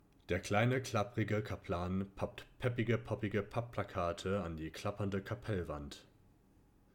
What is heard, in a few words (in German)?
Kardioid